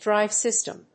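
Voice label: "DRIVE SYSTEM", English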